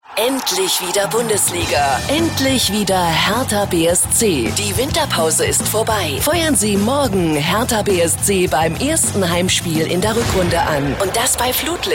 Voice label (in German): Stationvoice Fussball Trailer; RS2